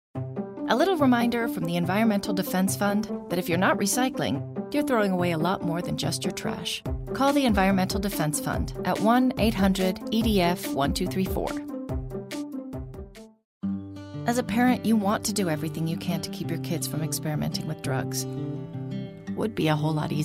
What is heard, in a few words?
English US